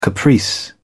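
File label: "caprice", English, British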